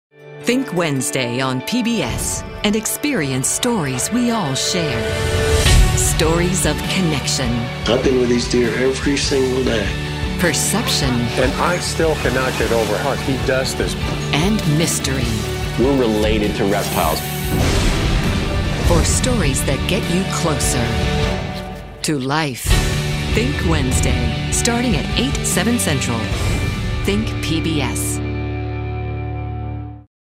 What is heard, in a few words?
inspirational